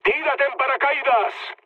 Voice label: bailout, mood low, speech; War Thunder

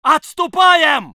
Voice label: voice sov infantry

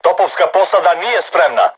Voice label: voice message art reloading, crew tanks serbian

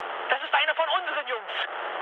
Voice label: player friendly fire, mood med, speech ge

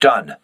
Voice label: voice message enemy destroyed, crew ships english

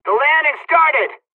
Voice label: dialogs polish; War Thunder

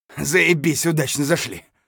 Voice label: mutter; Escape from Tarkov

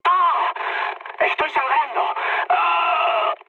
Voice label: damaged pilot, mood high, speech; War Thunder

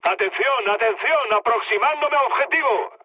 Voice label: near waypoint, mood med, speech; War Thunder

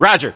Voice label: roger, English, US; localized english; voiceovers

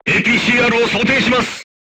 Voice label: voice message load APCR, crew tanks japanese

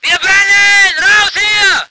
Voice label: voice ger vehicle

